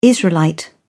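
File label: "israelite", British English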